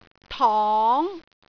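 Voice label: "tong2", Cantonese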